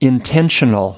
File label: "intentional", us